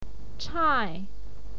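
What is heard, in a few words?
"chai", Mandarin Chinese, fourth tone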